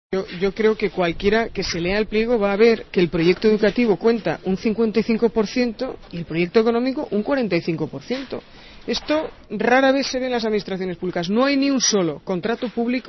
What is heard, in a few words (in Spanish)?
noticias